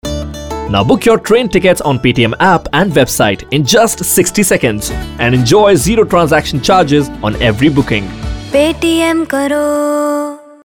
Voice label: English, male voice; Paytm